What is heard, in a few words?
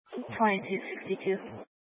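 Sheriff Dispatch, Scanner audio recording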